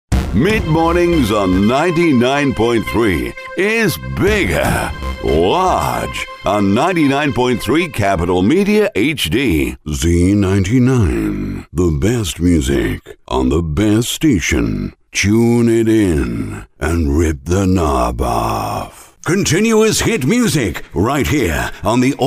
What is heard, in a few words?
english british radio imaging; actor de doblaje británico nativo radicado en el Reino Unido